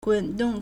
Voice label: "gun3dong4", Chinese